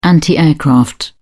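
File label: "anti aircraft", English, British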